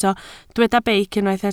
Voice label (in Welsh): "beic", Welsh